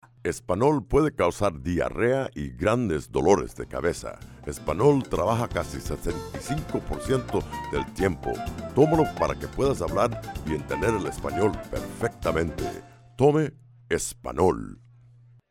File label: Espanol